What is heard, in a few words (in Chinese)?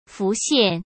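"浮现", Mandarin Chinese; chinese flashcards; hsk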